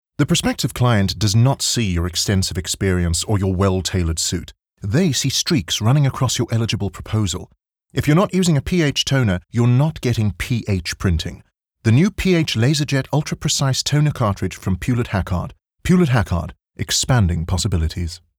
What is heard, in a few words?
English Corporate; OSM Talent